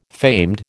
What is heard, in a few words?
"famed", American